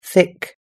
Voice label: "thick", US English

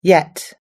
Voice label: "yet", US English

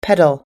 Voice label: "pedal", US English

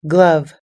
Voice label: "glove", US English

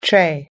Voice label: "tray", US English

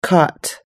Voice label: "caught", US English

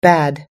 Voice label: "bad", US English

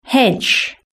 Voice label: "hedge", british english

